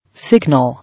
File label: "Signal", English